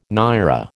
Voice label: "naira", American